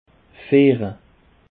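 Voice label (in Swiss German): "fiehre", Alsatian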